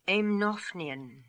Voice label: "Aimnophnian", pronounciations; Fourth Way; Beelzebub